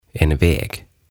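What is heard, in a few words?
"En Väg", Swedish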